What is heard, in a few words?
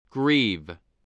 "grieve", spelling